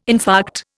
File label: "infarct", Indian